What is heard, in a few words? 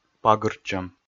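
"пагыртчӧм", Komi, male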